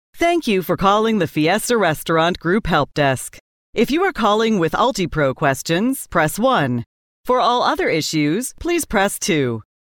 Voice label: English native